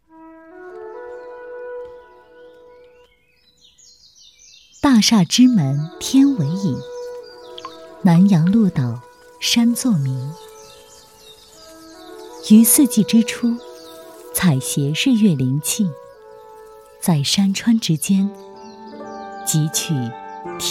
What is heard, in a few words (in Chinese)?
纪录片类田间